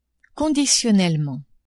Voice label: "conditionnellement", French; adverbs